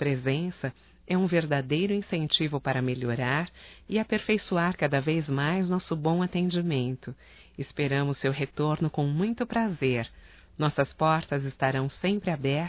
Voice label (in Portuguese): CLIENTE PROFESSORA, Telemensagens, fem; Profissionais; Telemensagens 2017